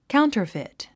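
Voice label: "counterfeit", English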